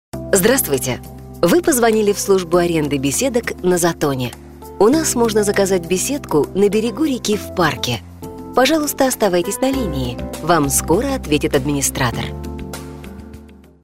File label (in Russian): Речевой секретарь телефона аренда и отдых; ДИКТОРЫ ДЛЯ IVR